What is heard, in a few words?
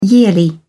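"yearly", British English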